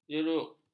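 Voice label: "jeruk", Malay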